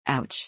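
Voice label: "ouch", English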